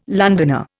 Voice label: "Londoner", Indian